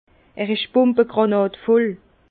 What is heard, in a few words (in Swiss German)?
"bumbagranadvoll", Bas Rhin; vin